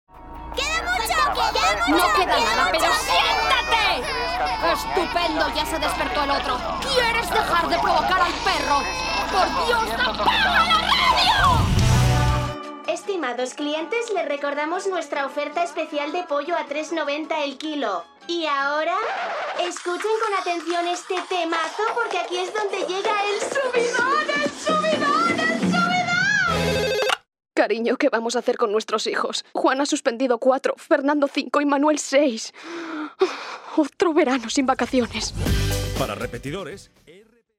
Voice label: female spanish castilian adult character compilation; devine voices